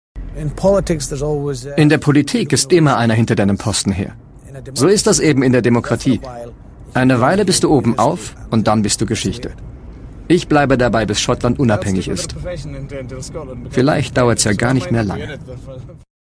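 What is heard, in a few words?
voice over demo; wendekreis br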